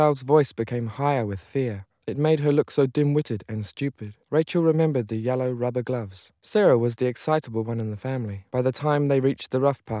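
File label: male, 130 ms, 5 cm, source; SiSEC11; underdetermined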